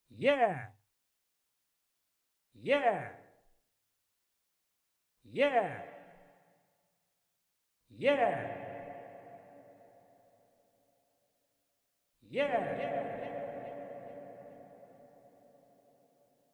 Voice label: Man's voice – 'yeah' – dry to reverberant; Sound-makers